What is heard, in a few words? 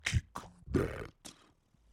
demonic techno voice "kick that"; sound effects